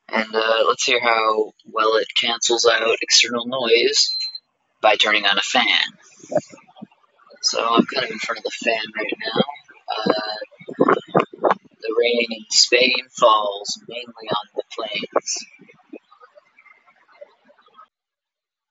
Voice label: Under Armour Project Rock by JBL Microphone Sample